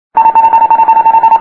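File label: "Maximum Angle Of Attack", English; DCS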